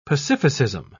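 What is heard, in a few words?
"pacificism", British English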